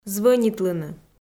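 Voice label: "звӧнитлыны", Komi-Zyrian, female; audio dict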